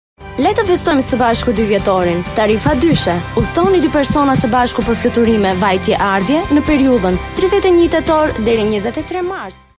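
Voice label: Albanian, female, narration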